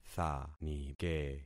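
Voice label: "thanike", concatenated syllables; Affixing experiment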